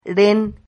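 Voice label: "ren", Spanish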